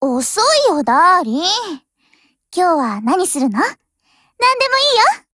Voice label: HELLO, JP; GF Alarm; Mk23